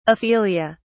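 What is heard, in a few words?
"aphelia", British English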